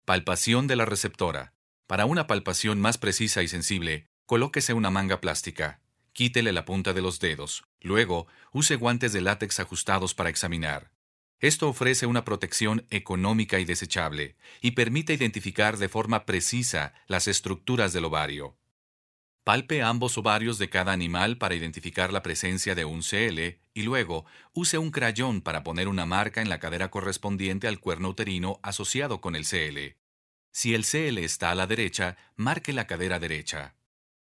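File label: spanish latin american male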